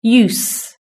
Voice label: "use", british english